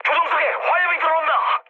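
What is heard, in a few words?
Korean on fire mood med; War Thunder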